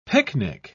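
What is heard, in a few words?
"pyknic", British English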